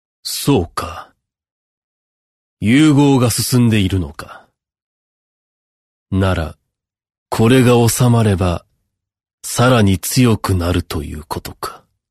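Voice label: narration male natural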